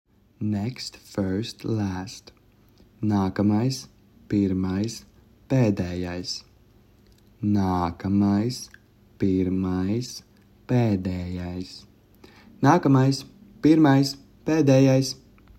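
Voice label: Next First Last, Latvian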